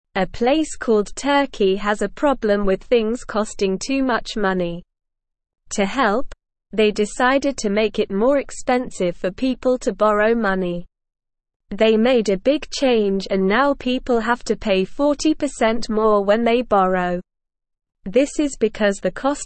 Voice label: English Newsroom Beginner SLOW Reading; Turkey Raises Borrowing Costs to Control Rising Prices